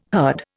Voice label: "herd", Indian